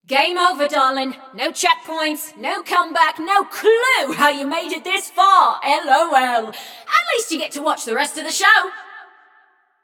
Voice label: Scrap Run Inform Runner Eliminated, Announcer